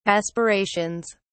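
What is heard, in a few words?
"aspirations", English, British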